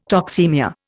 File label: "toxaemia", Indian